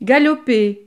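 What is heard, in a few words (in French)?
"galoper", French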